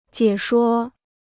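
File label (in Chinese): "解说" Mandarin Chinese; jiě shuō; to explain (verbally)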